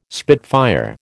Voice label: "spitfire", American

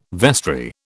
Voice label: "vestry", British

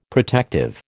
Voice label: "protective", American

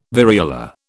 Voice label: "variola", British

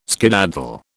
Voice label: "skedaddle", British

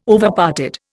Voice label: "Overparted", Indian

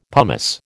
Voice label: "pumice", American

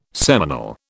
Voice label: "seminal", British

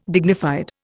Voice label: "dignified", Indian

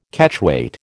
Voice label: "Catchweight", American

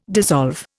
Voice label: "dissolve", Indian

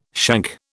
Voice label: "shank", British

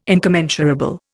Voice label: "incommensurable", Indian

